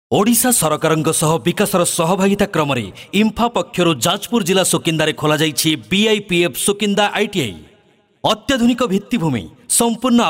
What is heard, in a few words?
ORIYA